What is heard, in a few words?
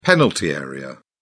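"penalty area", English, British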